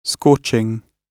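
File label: "scorching", British English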